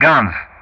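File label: "guns", English; Ka-50; Weapon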